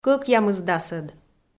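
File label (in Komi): "коькъямысдасоьд", Komi-Zyrian, female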